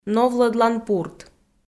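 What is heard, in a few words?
"новлӧдлан пурт", Komi-Zyrian, female; kpv audio dict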